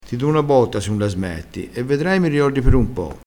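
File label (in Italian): "botta", vocabolario dialettale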